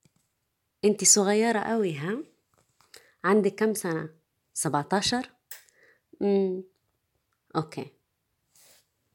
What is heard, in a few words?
Voice, arabic